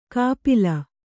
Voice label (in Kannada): "ಕಪಿಲ", kannada